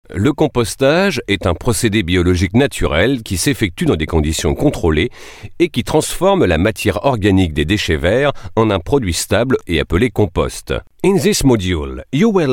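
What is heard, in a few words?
French English male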